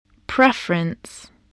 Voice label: "preference", voice, spelling